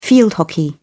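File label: "field hockey", English, British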